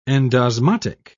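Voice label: "endosmotic", British English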